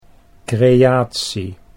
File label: "creatie", Dutch